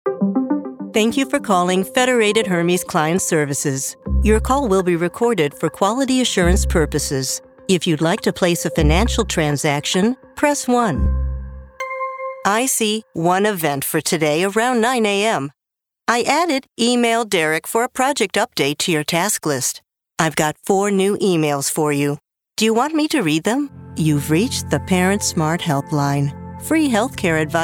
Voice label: Telephony IVR